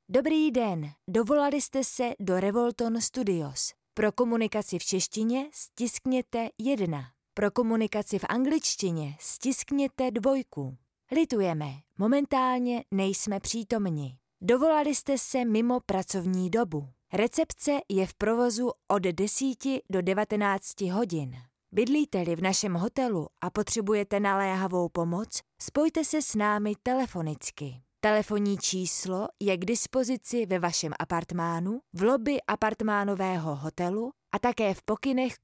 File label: czech ivr